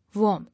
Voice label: "Warm", English

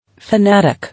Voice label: "Fanatic", English

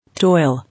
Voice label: "Toil", English

